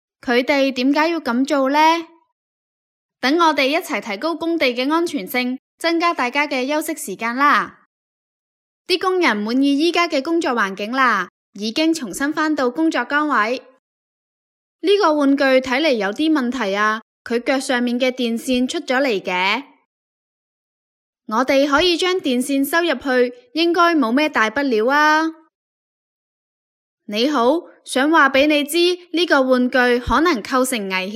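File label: Chinese, female, narration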